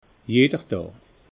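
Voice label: "jeder daa", Alsatian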